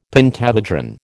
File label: "pentahedron", American